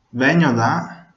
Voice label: i'm from, venetian